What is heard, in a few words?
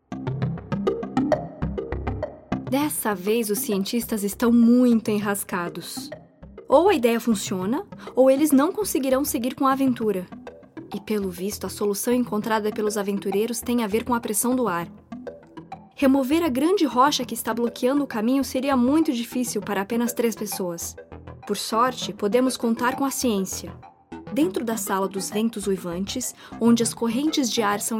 Audiobook; Merlin